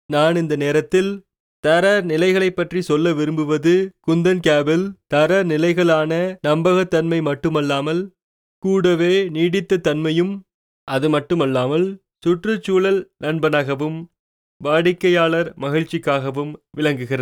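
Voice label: Tamil male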